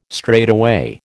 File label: "straightaway", American